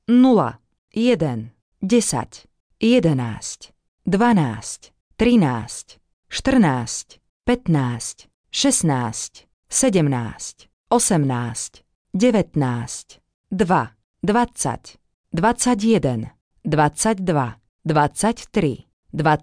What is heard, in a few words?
Dealer Voice, sk, Roulette; Real Dealer European Roulette